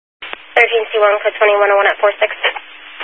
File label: Highway Patrol, scanner